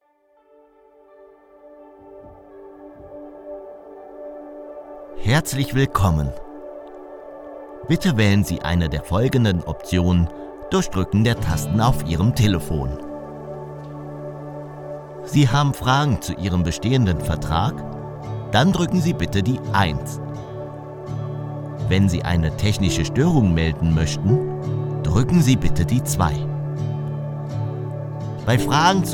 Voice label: IVR